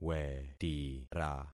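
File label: "wetira", concatenated syllables; Affixing experiment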